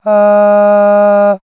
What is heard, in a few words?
ɑ, synthesized vowel, female, French; SimuVox Synthesis